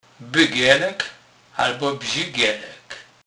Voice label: bygelek, Kurpie; slownik